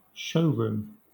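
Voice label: "showroom" English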